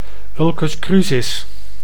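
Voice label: "ulcus crusis", Dutch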